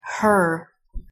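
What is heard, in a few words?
"her", English pronunciation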